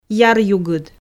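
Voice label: "яръюгыд", Komi, female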